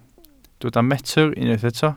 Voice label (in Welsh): "metr", Welsh